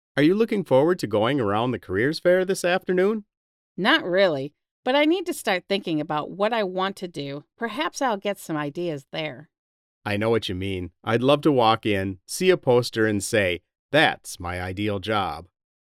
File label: Conversation; Real Heroes 4